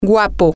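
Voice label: good looking, spanish, female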